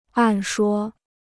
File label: "按说", Chinese